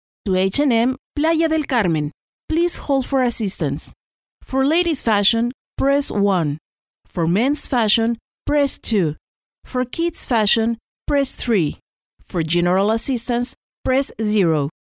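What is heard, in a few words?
Spanish Latin America female English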